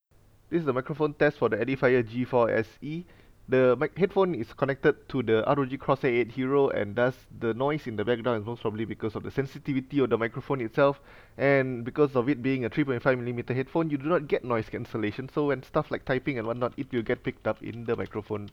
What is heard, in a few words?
microphone test; Edifier G4 SE